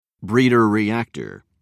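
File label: "breeder reactor", British English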